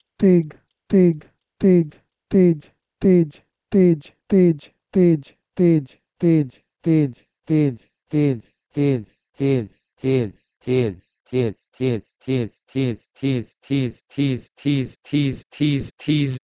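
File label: Proto-Indo-European teig to Persian tiz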